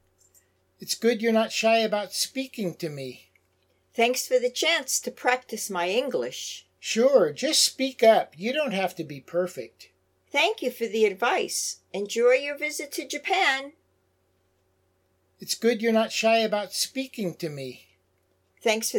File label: Natural Dialog; Lesson 1